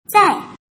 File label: "zai", mandarin